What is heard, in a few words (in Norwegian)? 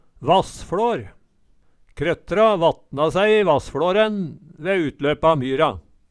vassfLar, Numedalsmål